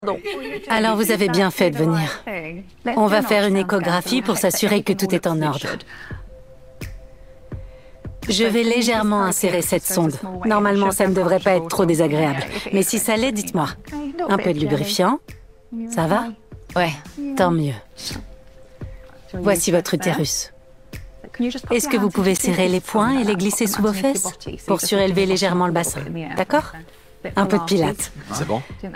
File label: voice over la gynecologue; geordie story